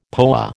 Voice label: "POA", American